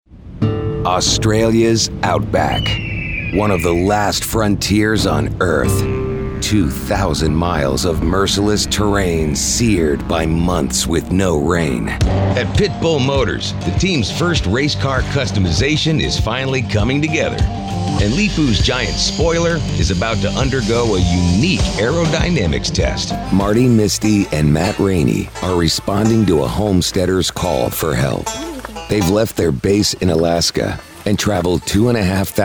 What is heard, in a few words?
narration men